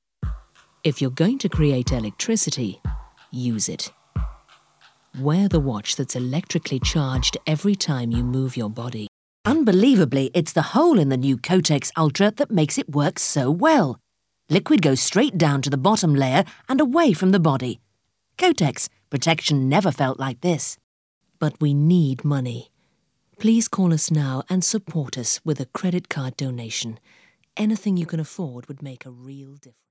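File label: British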